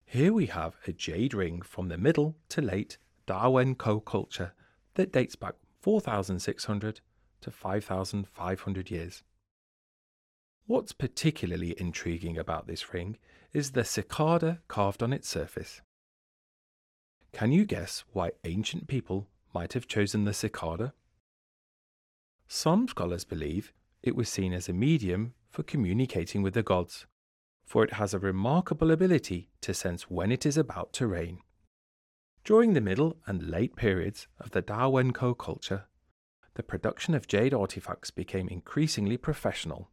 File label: Eng, audio guide